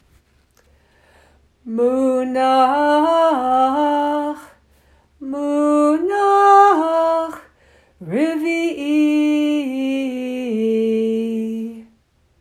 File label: Munah Munach Revi’i